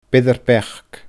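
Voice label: "beirear beachd", Scottish Gaelic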